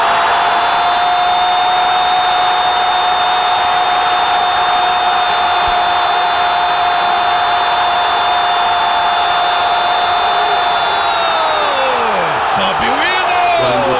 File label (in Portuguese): radio gol narracao; palmeiras 1 x 1 gremio; radio estadao espn; gustavo villani